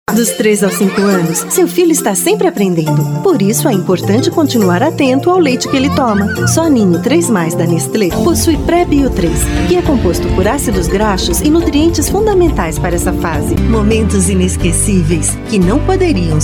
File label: Brazilian Portuguese, Brazilian Portuguese Female